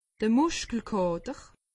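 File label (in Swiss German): "muskelkater", Alsatian; medecine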